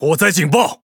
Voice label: Speech Alarms Fire Alarm, ZH CN

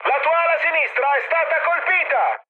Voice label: left wing mood high, speech it; War Thunder

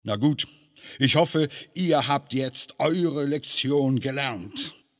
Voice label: Gloat; Speech; Civ5; Bismark

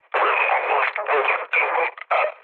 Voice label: damaged pilot, mood high, speech tur; War Thunder

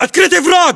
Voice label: threat infantry exposed, battlechatter ru; localized english

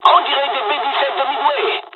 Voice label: dialog, dialogs french; midway; War Thunder

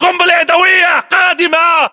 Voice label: inform attack grenade, battle chatter, english

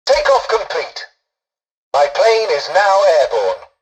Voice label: tutorial dialogue, english; War Thunder

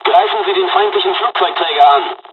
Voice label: bombing, dialogs german; midway; War Thunder

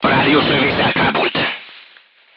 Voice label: voice message machine gunner contused, hungarian; crew tanks

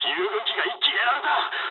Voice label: aircraft destroyed mood low, speech jp; War Thunder

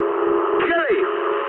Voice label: eng enemy detected mood med, speech eng; War Thunder

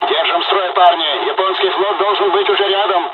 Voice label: dialog, dialogs russian; us midway; War Thunder

